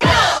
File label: "uh" STAB; ooh ahh